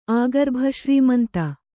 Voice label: "ಆಗರ್ಭಶ್ರೀಮಂತ", kannada

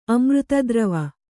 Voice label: "ಅಮೃತದ್ರವ", kannada

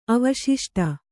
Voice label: "ಅವಶಿಷ್ಟ", Kannada

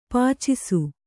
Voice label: "ಪಾಚಿಸು", Kannada; pācisu; (Verb); to lull to sleep.

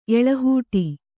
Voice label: "ಎಳಹೂಟಿ", Kannada

